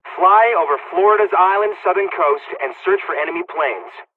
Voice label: waypoint fly, dialogs serbian; War Thunder

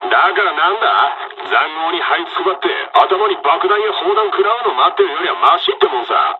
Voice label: dialog, dialogs japanese; War Thunder

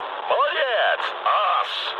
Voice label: trick, speech ru

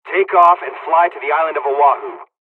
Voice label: takeoff, dialogs serbian; usa; War Thunder

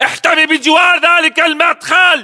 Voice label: order cover doorway, battle chatter, english

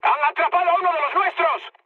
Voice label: aircraft destroyed mood high; speech; War Thunder

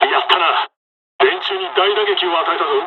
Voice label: dialogs japanese; War Thunder

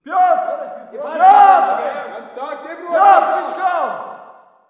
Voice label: walla rus mountain battle

